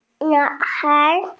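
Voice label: "yang2 tai2", Mandarin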